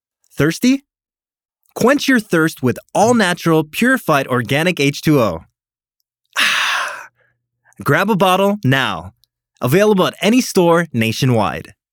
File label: English (Refreshing, Selling, Joyful)